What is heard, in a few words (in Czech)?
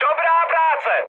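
Czech player killed enemy, mood high; War Thunder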